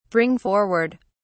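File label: "bring forward", British English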